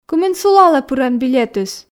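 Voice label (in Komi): кымын сулалӧ пыран билетыс, Komi, female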